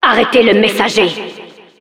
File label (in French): Announcer stop courier, fr